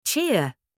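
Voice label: "cheer", English; tiếng Anh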